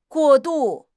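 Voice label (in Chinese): "过度", Chinese; HSK6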